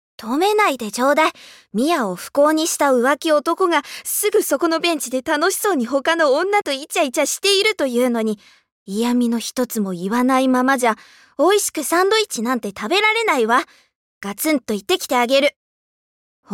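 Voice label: Chinese exchange student, Japanese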